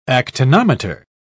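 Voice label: "actinometer", British English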